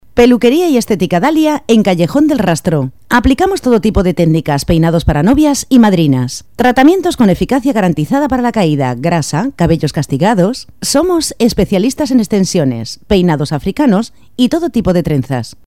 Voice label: locutora spain

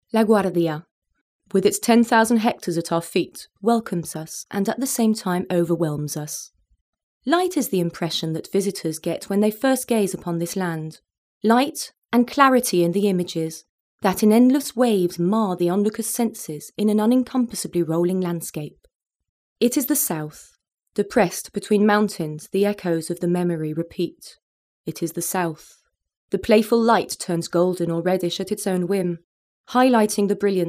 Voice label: locutora inglesa

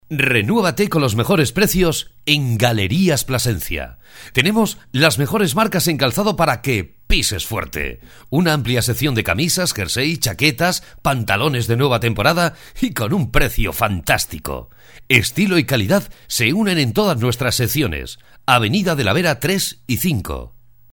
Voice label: locutor

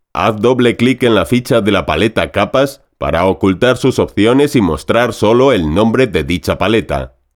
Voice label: locucion curso informatica una sola voz; Recording for a computer course with the Spanish voiceover

